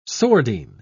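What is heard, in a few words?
"sordine", British English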